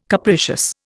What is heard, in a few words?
"capricious", Indian